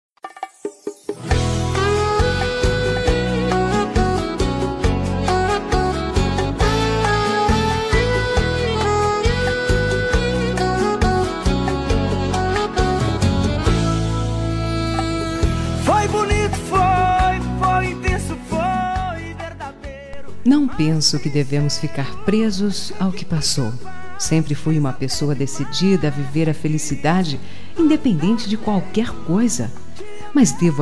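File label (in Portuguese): ROMANTICA PARA EX female, telemensagem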